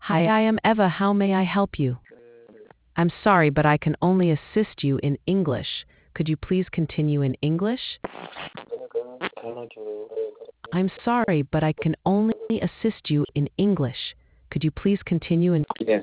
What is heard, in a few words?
conversation